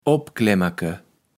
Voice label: "Opklemmerke", Platt

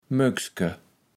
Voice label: "Möckske", Platt

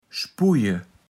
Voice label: "spujje", Platt

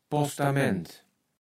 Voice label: "Postament", Platt

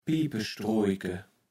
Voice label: "Piepestroojke", kaevels platt

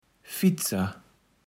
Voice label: "Fietser", Platt

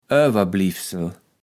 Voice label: "Owerbliewsel", kaevels platt

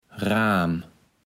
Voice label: "Raam", Platt

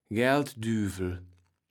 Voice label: "Gelddüwel", Platt

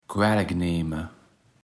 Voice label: "quaelleknehme", Platt

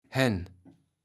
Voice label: "hän", kaevels platt

